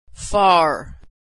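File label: "far", American English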